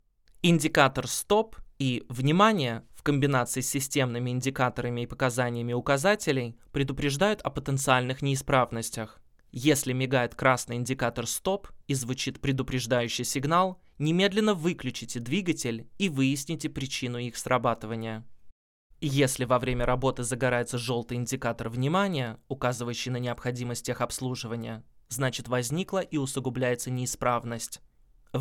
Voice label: Home Studio Read